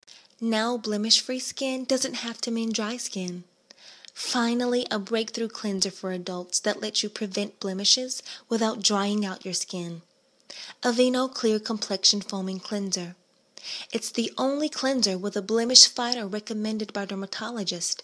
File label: Voice Over; Aveeno